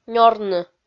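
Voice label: "нёрны" Komi-Zyrian, female; kpv audio dict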